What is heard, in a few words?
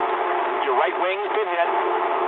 eng right wing mood low, speech eng; War Thunder